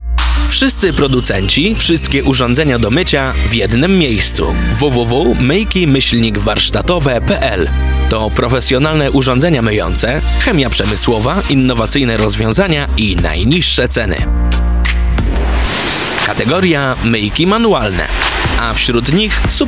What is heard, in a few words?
dubbing specialist Polish voice; Polish dubbing specialist, 30-50. Expressive voice for dubbing; Male